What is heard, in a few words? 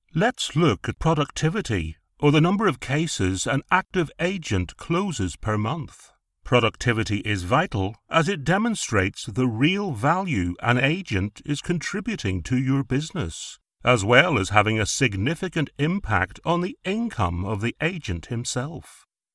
E-LEARNING COURSE